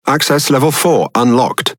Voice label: Pirate Speech Access Level; World Of Warships